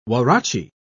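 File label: "huarache", British English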